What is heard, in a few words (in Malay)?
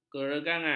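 "keregangan", Malay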